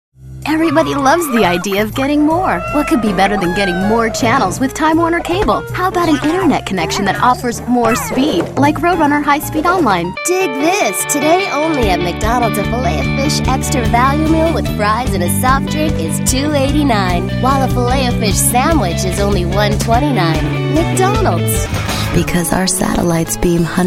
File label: English, english females